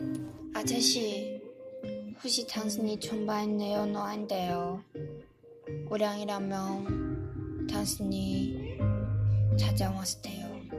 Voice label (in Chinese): 大叔